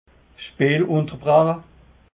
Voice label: "speelunterbreche", alsace

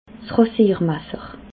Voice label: "rasiermasser", alsace

